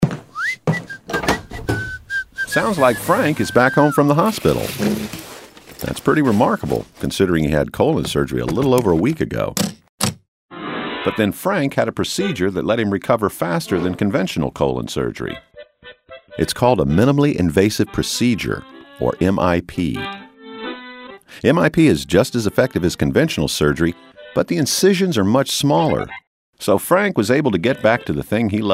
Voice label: commercial; Radio Commercial for Minimally Invasive Procedures; accordion playing is featured on this commercial for minimally invasive medical procedures